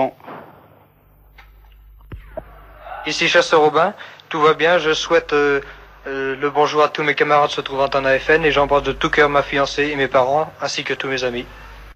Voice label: Guerre Algerie messages radio

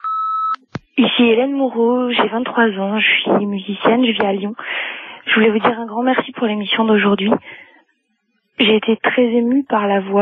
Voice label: Lettres fusilles repondeur

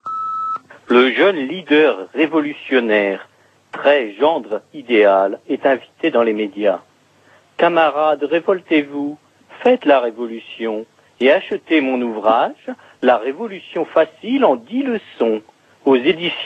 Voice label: LCR repondeur

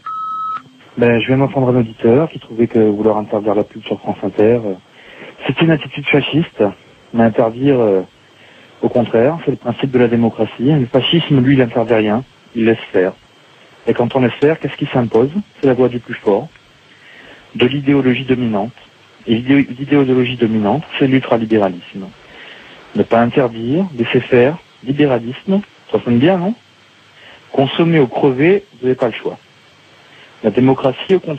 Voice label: Pub répondeur; France Inter

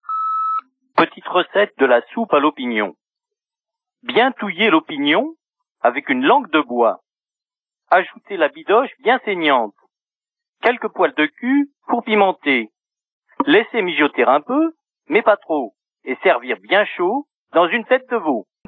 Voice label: Soupe opinion repondeur

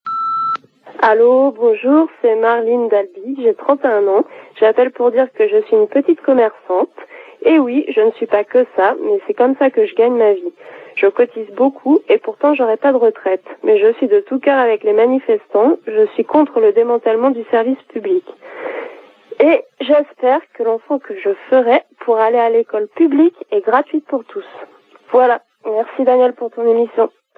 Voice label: Manif retraites commercante repondeur

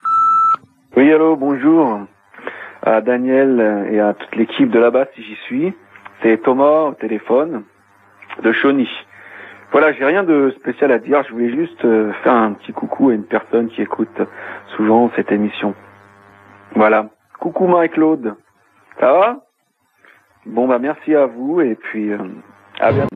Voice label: Coucou répondeur

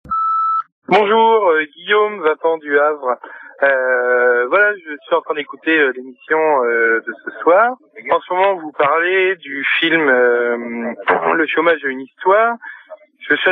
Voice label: Chomage repondeur

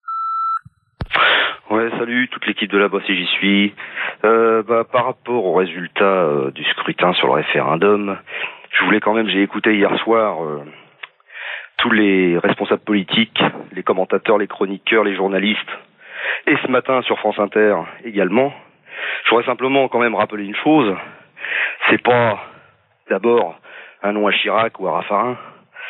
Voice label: repondeur; Victoire du Non

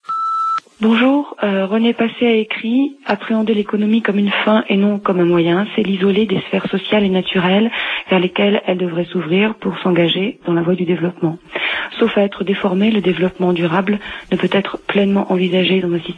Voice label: repondeur; Rene Passet

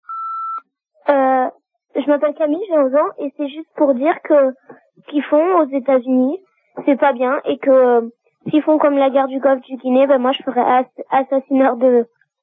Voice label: Irak repondeur

